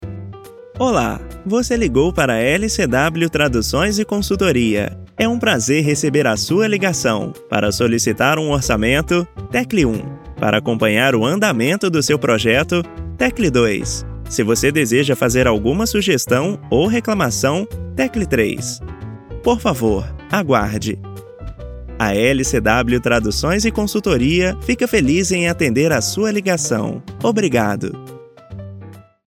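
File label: Portuguese Brazilian IVR; IVR/Phone systems; Male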